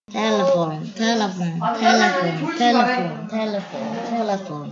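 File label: "telephone", spoken word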